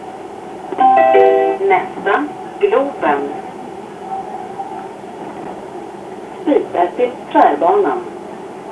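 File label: pre-recorded female voice says: "Nästa: [name]" (meaning "Next stop: [name]"); Globen; metro; wav by K. Karlsson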